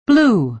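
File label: "blew", American English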